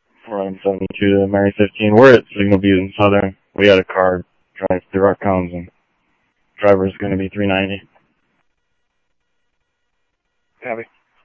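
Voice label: Mesa Police Department, scanner audio; MESA PD Superstn MesaPoliceDep; Mesa Simulcast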